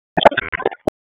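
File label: Raleigh Police North District Tactical, p25; wake p25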